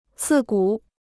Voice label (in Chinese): "刺骨", Chinese